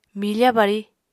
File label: "mifa bari", Apatani